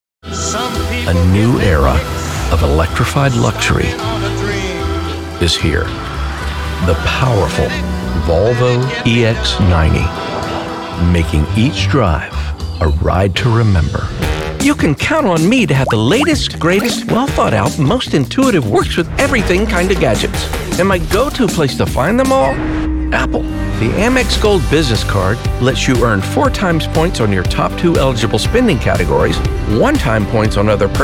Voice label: Commercial